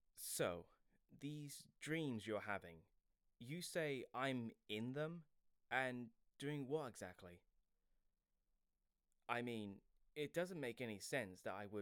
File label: conversation voice